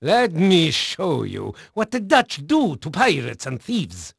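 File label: Dutch male, voice; Pirates Of The Caribbean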